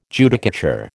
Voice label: "Judicature", American